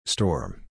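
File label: "Storm", English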